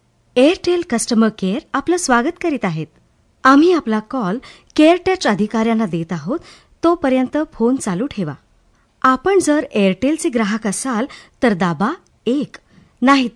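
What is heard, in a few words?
Marathi, General; GoLocalise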